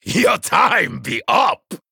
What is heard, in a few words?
Male Troll Attack